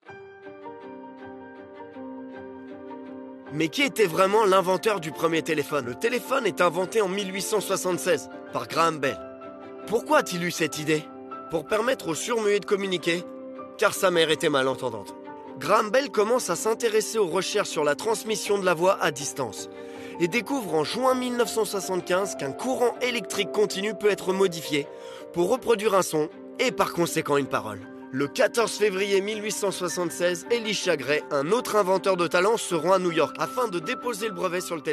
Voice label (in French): Spot le telephone; voix-off